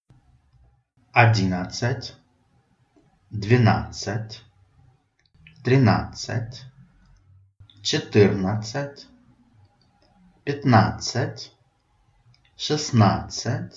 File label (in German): russisch